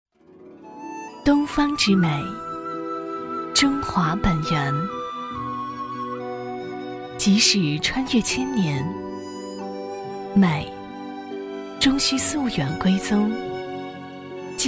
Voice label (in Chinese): 女 品质广告 温柔磁性